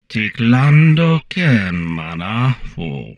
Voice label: humanoid portrait male; Stellaris; humanoids

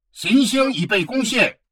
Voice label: advisor notification invasion enemy won, chinese; Stellaris

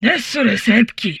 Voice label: humanoid portrait female; Stellaris; humanoids

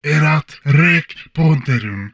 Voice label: humanoid male; Stellaris; humanoids

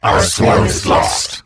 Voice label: advisor notification fleet destroyed; Stellaris; ethics; hivemind

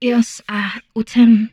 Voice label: humanoid female; Stellaris

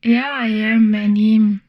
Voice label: humanoid female; Stellaris

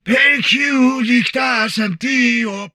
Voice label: humanoid portrait male; Stellaris; humanoids

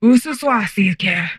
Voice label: humanoid female; Stellaris; humanoids

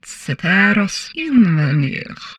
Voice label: humanoid female; Stellaris; humanoids

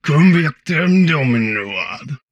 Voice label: humanoid portrait male; Stellaris; humanoids

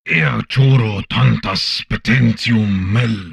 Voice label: humanoid male; Stellaris

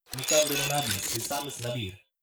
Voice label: robot human male greetings

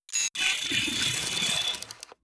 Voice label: robot arthopoid greetings; Stellaris; synthetic dawn

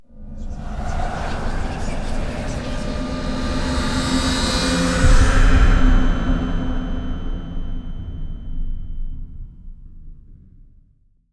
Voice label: archeology event whispering; ancient relics

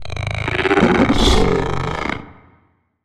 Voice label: portrait synthetic; Stellaris; machineage

